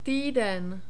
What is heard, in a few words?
"tyden", Czech